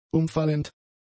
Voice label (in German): "umfallend", German; adjectives